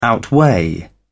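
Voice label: "outweigh", English, British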